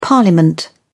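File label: "parliament", British English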